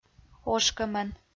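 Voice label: "ошкӧмӧн", Komi, female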